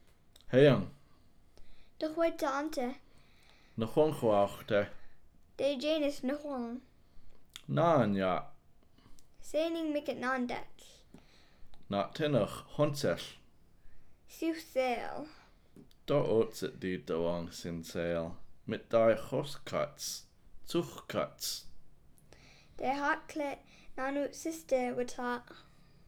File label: conversation, Hupa